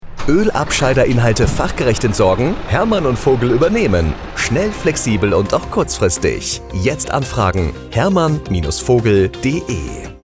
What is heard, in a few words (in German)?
Funkspot; Herrmann & Vogl GmbH